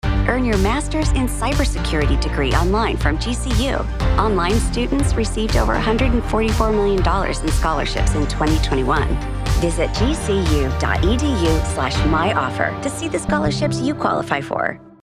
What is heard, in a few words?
english american radio imaging